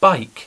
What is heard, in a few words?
"bike", English; ve meste